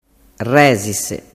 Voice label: "rezise", dialettu

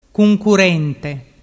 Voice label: "cuncurrente", dialettu

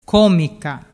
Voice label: "comica", dialettu

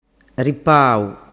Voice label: "ripau", dialettu

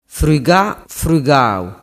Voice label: "frugafrugau", dialettu

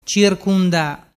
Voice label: "circunda", dialettu

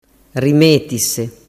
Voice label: "rimetise", dialettu

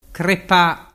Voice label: "crepa", dialettu

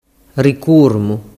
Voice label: "ricurmu", dialettu

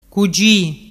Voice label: "cugi", dialettu

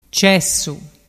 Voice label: "cessu", dialettu

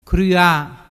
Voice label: "crua", dialettu

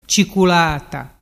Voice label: "ciculata", dialettu

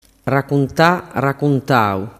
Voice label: "racuntatau", dialettu

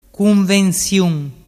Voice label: "cunvensiun", dialettu

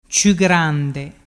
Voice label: "ciugrande", dialettu

